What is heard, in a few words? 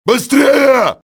hurry, russian soldier; XBOX